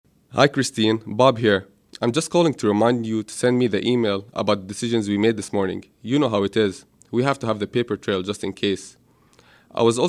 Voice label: voicemail reminder call